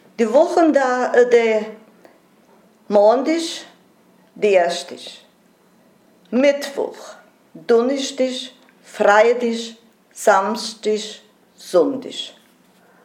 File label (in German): wochentage, otonhunsrueck; Kell am See